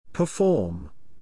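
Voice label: "perform", English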